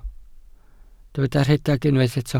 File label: "rhedeg", Welsh